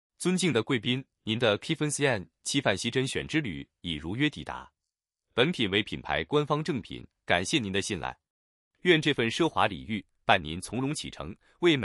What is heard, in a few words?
voice male